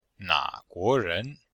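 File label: "na3guo2ren2", Chinese; Cours-de-Chinois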